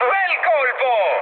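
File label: Italian player killed enemy mood high, speech; War Thunder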